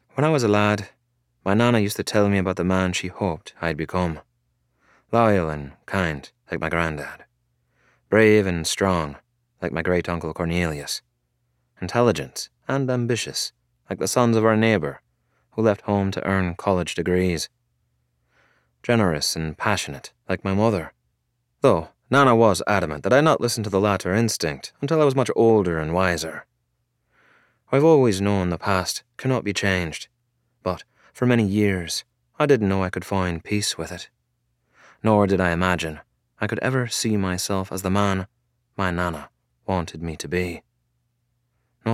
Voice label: Romance Irish Accented